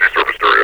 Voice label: deserviced area, metropolice vo; Half Life Alyx